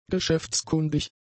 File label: "geschäftskundig", German; adjectives